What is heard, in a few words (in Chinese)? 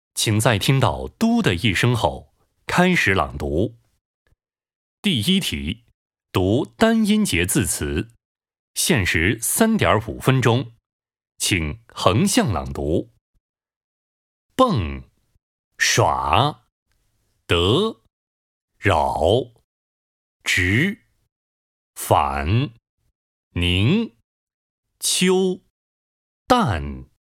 男 普通话考试